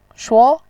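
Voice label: "shuo", Mandarin Chinese, second tone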